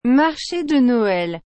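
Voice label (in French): "marché de Noël", French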